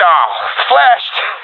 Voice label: blinded, professional epic; vo; Counter Strike Model Pack; CS:GO